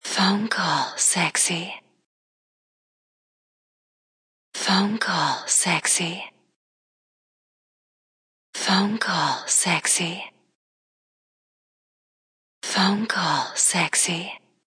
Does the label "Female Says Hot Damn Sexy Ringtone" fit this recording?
Yes